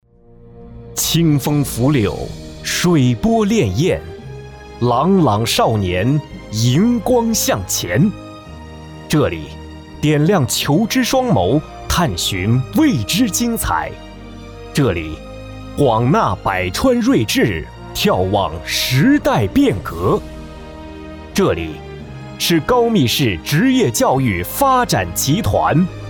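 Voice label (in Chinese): 宣传片职业教育发展集团; 高密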